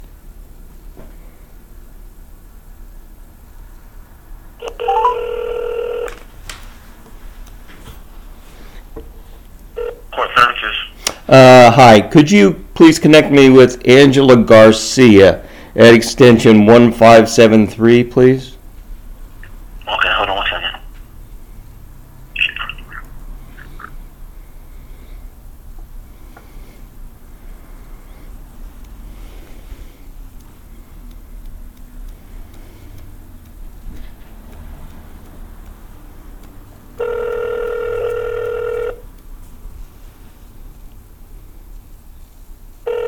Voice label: Phone Call